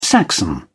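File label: "saxon", English, British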